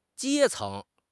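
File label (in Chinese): "阶层", Chinese; HSK6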